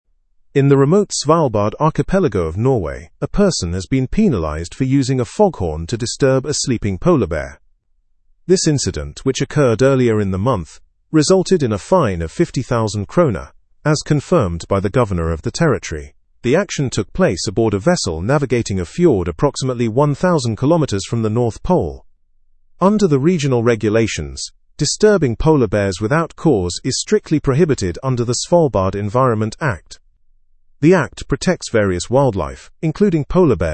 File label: english news advanced; individual penalized for disrupting polar bear habitat